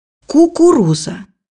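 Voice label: "corn", Russian; bukvar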